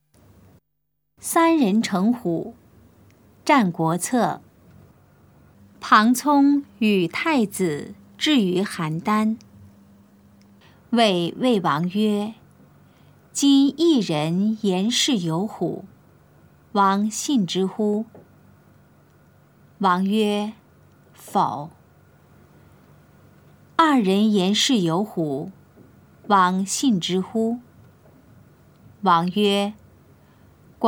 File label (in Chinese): putonghua; 三人成虎; 普通話